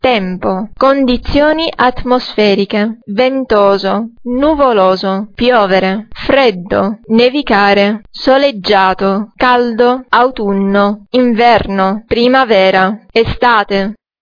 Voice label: italian weather